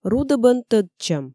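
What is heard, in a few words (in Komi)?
рудӧбӧн тӧдчӧм, Komi, female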